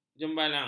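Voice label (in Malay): "jembalang", Malay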